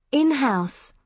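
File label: "in house", British English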